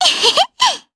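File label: Vox Happy, Japanese; voices; heroes; Estelle; kingsraid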